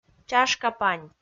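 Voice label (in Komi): "чашка-пань", Komi, female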